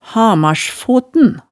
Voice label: "hamarsfoten", hemsedalsmaalet

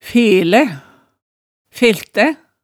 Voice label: "felæ, feltæ", hemsedalsmaalet

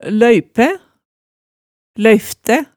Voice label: "løypæ", hemsedalsmaalet, tale

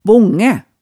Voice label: "bongæ", hemsedals maalet tale

